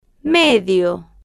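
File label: "medio", Spanish, female, slow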